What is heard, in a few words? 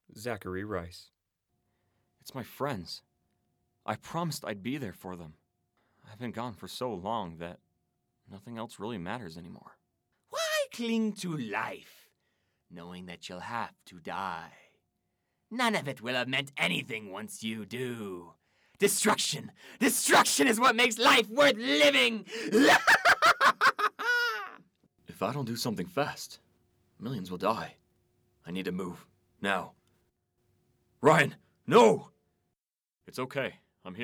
Character Voice